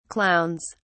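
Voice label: "clowns", English, British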